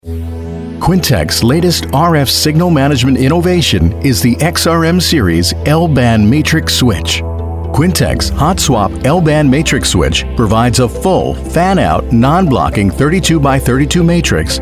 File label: Corp Narration; Corporate / Industrial Narration; Professional Voiceover Services; Commercials (Radio & VO for TV)